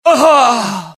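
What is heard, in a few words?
death, dialogue generic Italian; mohaa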